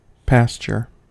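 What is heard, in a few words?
"pasture", American English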